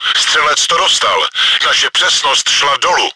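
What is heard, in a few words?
gunner killed, чешской озвучки; Střelec to dostal, naše přesnost šla dolů.; Наводчика сняли, наша точность упала.; Czech; World of Tanks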